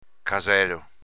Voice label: "caselo", dialetto veneto